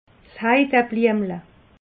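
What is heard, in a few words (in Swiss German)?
"heidelbliemel", Alsatian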